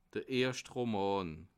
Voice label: "erscht", alsace

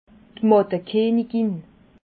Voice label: "mattekenigin", alsace

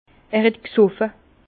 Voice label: "gsoffe", Bas Rhin; vin; bu est impregné

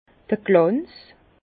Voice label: "glanz", Bas Rhin; vin; brillance

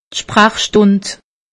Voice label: "sprachstund", alsace; medecine

